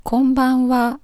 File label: "konbanwa", Japanese; Japanese vocabulary